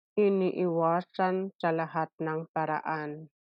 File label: Tagalog; avoided at all costs - iniiwasan sa lahat ng paraan